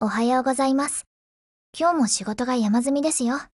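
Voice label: HELLO Japanese, Voice; GF Alarm; AKAlfa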